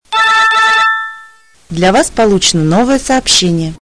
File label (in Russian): dlja vas poluchenno novoe soobshhenie, SMS, Ringtone